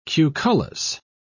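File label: "cucullus", British English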